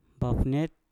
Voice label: "pofnyet", Mpur; hantu setan